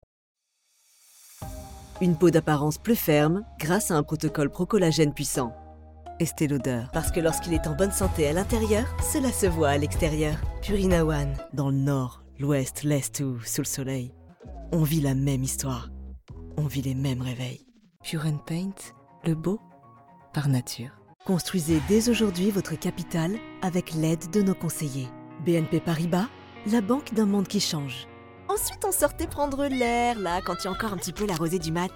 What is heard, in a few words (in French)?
Publicite